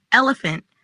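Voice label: "Elephant", English; animals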